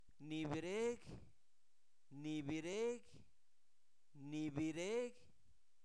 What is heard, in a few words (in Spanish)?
Zapoteco Albarradas, santo domingo albarradas; adjetivos; INALI